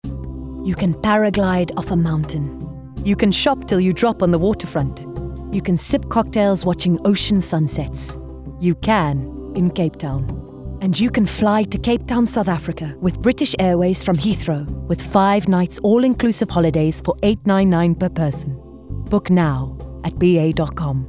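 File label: COMMERCIAL; British Airways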